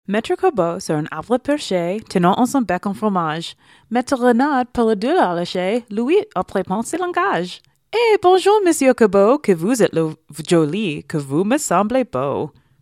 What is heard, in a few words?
Rode Podcaster, microphones